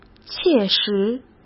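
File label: "切实", Mandarin Chinese; hsk; chinese flashcards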